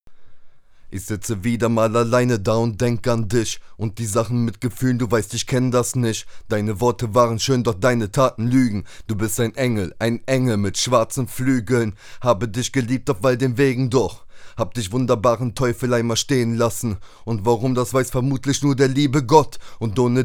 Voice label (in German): test rec platz raum mitte blick richtung offener raum, vocal aufnahmen